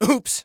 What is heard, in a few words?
Ooops, Soldier Male; Soldier Male 01